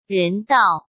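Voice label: "人道" Mandarin Chinese; chinese-flashcards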